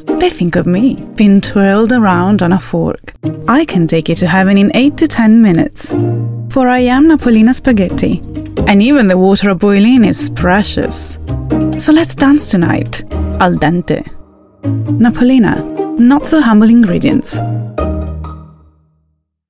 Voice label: female italian english with italian accent radio commercial; napolina